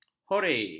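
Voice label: "hore", Malay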